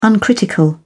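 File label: "uncritical", British English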